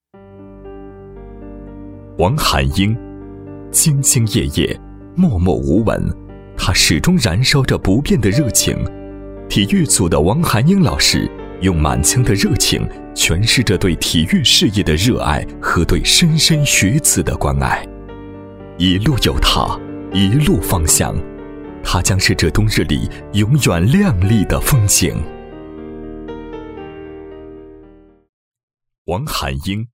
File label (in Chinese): 干音; 人物样音